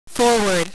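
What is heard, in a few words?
"forward", male radio voice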